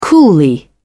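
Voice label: "coolly", British English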